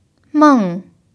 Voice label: "meng4", Mandarin pinyin